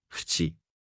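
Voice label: "ふち", Japanese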